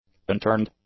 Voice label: "unturned", english; adjectives

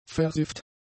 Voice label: "versifft", German; adjectives

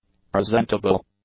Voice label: "presentable", english; adjectives

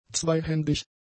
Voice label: "zweihändig", German; adjectives

